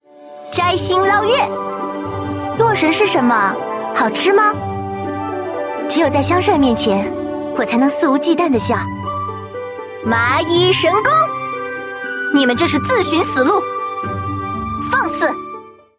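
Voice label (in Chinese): shaonv, girl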